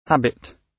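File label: "habit", British; Flora and fauna, agriculture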